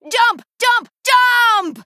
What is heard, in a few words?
Female Draenei Attack